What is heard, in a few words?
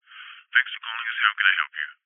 human call operator male american says thanks for calling us how can i help you